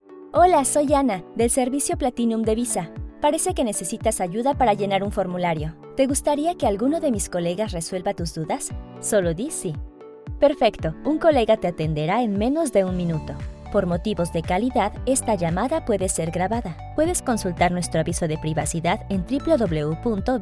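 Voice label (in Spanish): Demo IVR Audio respuesta telefonica Phone systems ES neutro FR EN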